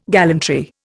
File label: "gallantry", Indian